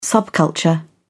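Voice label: "subculture", British English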